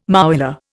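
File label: "mouillé", Indian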